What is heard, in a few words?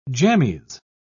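"jammies", British English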